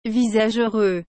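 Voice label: "visage heureux", French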